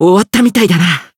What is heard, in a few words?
Voice win, jp; cless